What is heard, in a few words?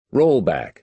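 "rollback", American English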